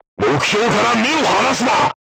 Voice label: voice message target lock, crew tanks japanese